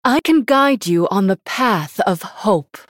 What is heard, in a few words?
female human picked